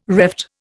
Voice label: "reft", Indian